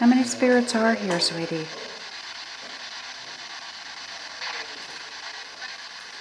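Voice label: 3 spirits in 2 voices, evp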